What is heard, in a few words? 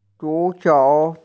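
"zu2qiu2", Mandarin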